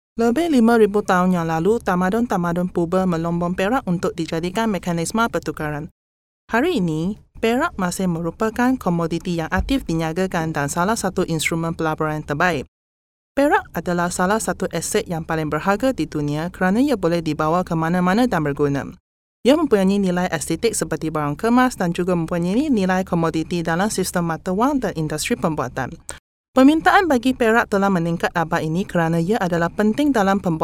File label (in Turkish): Malayca Kadin Malezya, seslendirme; kadin sesler